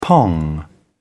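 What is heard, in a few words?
"pong", British English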